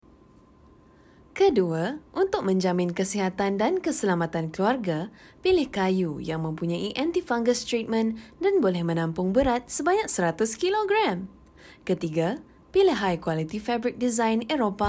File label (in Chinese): 马来西亚语女 外语 小语种